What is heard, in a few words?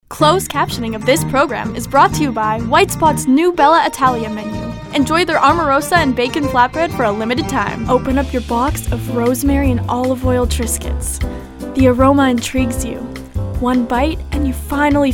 Commercial VO